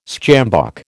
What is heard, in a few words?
"sjambok", American